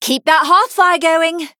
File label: Female Human Emote Winstreak